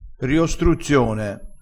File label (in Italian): "riostruzione", crespinese